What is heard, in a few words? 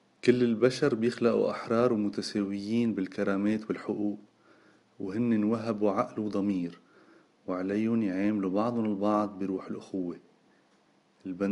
udhr lebanese